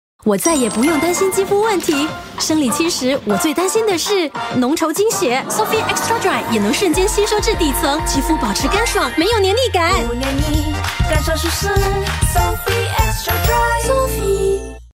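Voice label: Mandarin; Sofy Extra Dry Skin Comfort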